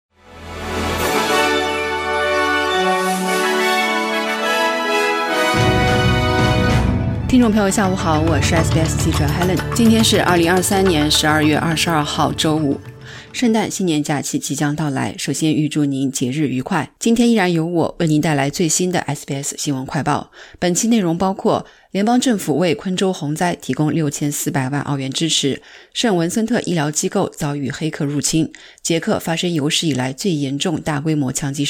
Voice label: mandarin newsflash; 捷克大规模枪击事件中至少14人死亡 全国哀悼一天; SBS Chinese; SBS新闻快报